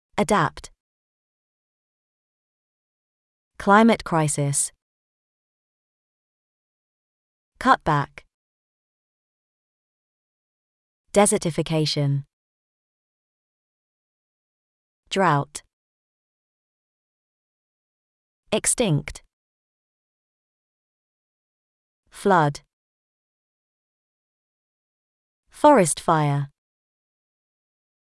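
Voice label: British; climate change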